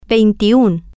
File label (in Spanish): "vein-tiun", Spanish